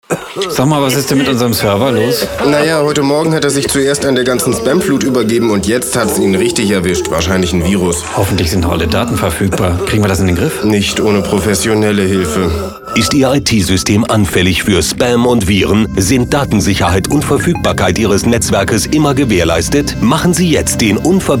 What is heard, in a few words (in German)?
Computer Radiowerbung